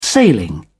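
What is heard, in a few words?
"sailing", British English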